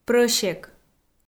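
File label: "прӧсек", Komi, female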